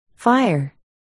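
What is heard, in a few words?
"fire", spoken word, articulation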